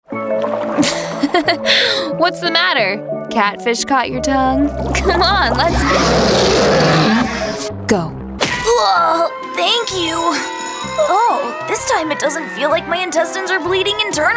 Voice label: women animation

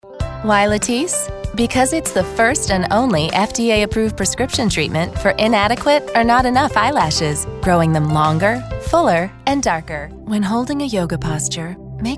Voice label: women, narration

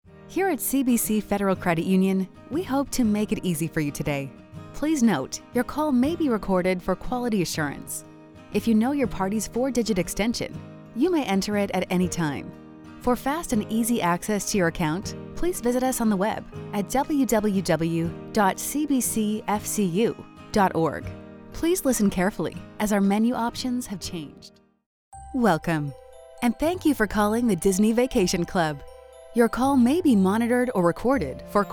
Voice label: women telephone

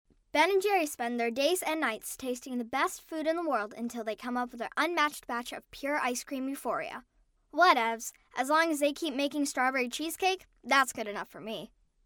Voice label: kids commercial

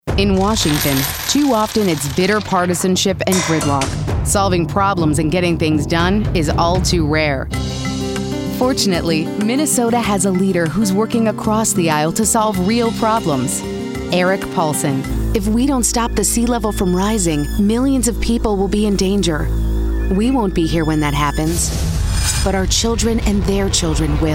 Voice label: women political

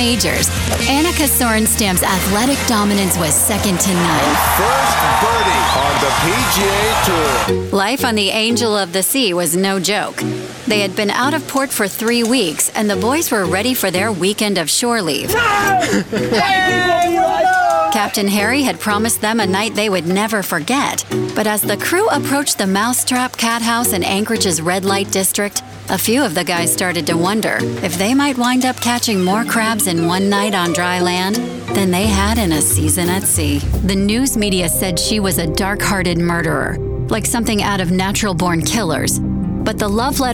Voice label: women narration

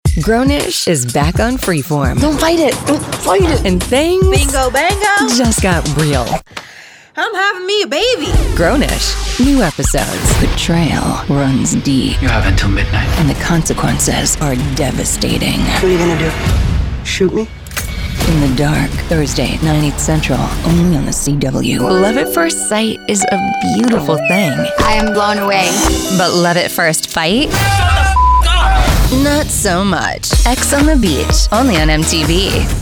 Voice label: women promos

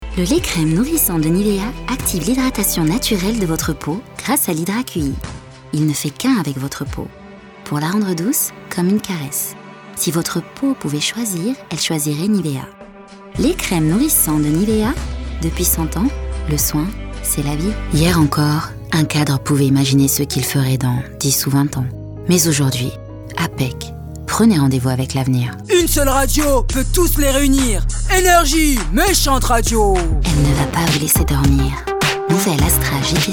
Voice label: french euro commercial

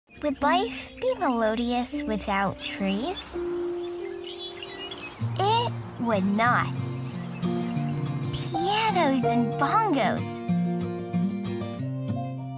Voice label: kids audiobooks

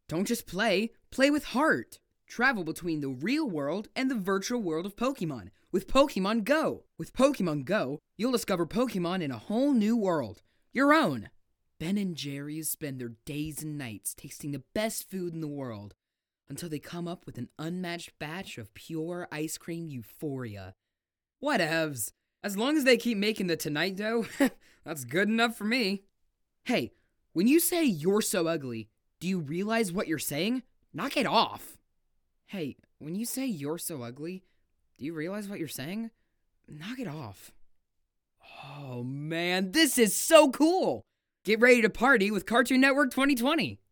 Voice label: kids commercial

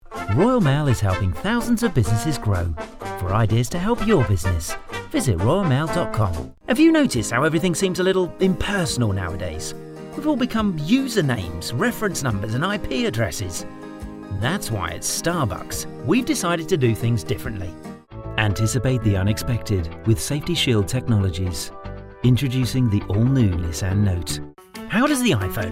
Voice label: british commercial

